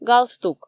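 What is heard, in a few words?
"галстук", Komi, female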